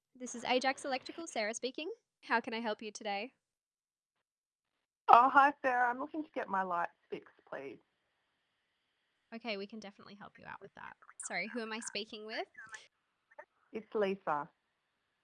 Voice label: Phone call example, ai receptionist; Lisa